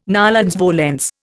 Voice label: "nolens volens", Indian